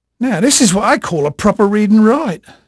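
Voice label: English male, voice; Pirates Of The Caribbean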